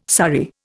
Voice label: "surrey", Indian